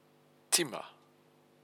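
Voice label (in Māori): "tima", Māori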